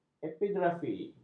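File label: "epigrafi", Malay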